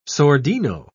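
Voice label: "sordino", British English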